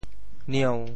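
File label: "nieu1", Teochew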